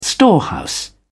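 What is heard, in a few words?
"storehouse", English, British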